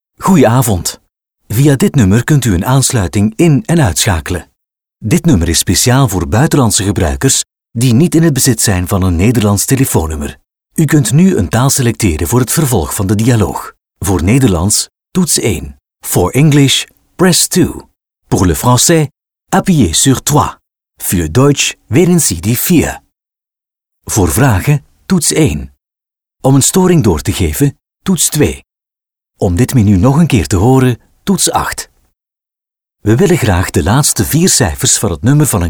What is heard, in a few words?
IVR